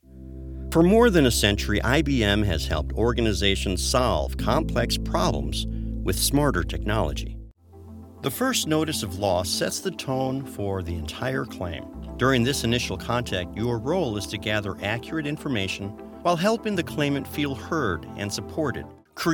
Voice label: ELearning